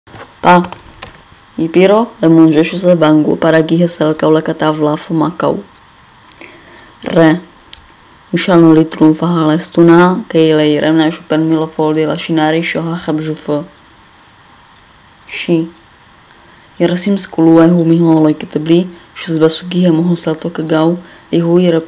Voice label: Lojban